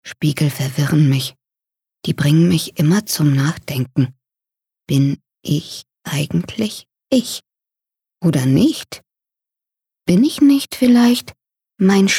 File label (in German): Innerer Monolog